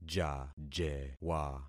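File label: "jajewa", concatenated syllables; Affixing experiment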